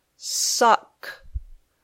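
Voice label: "suck", English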